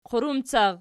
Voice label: "qurumcar", Archi